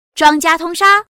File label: all win, girl